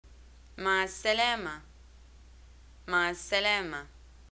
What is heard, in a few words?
greetings goodbye, arabic